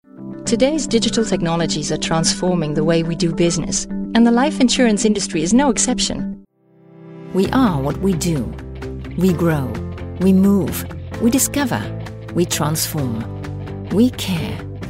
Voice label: English Narration